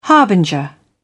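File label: "harbinger", British English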